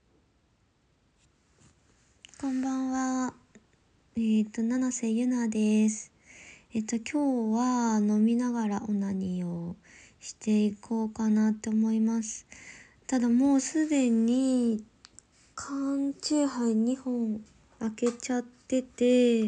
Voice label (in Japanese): ガチ酔い自己紹介トーク